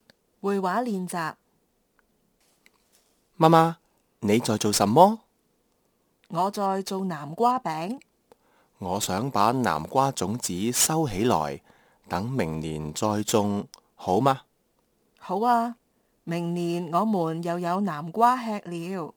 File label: conversation, Kantonese